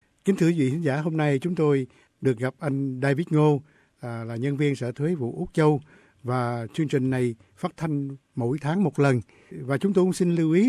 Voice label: vietnamese; ATO TaxTalk tháng 4 2016: Superstream; SBS Vietnamese